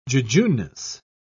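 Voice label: "jejuneness", British English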